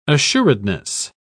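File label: "assuredness", British English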